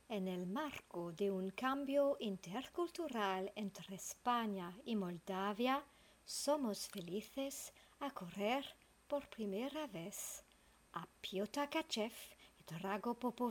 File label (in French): Voix off Espagnol